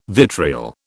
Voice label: "vitriol", British